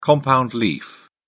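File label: "compound leaf", English, British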